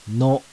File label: "NO", kana